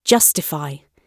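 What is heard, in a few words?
"justify", British English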